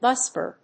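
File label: "BUS-BAR", English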